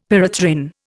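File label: "pyrethrin", Indian English; Dictionary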